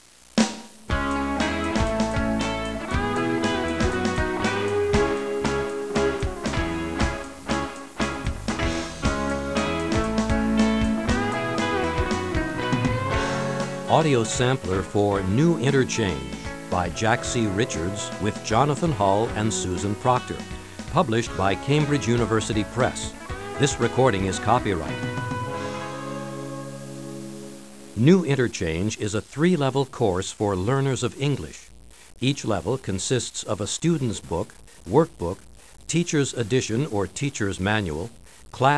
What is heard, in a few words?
NARRATIO